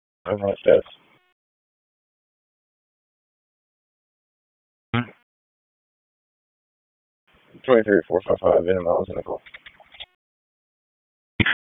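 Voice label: Police District Dispatch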